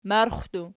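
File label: "marxxu" plural, Archi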